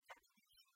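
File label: "тапикасьӧ", Komi, female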